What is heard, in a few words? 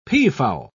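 "peafowl", British English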